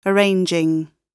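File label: "arranging", British English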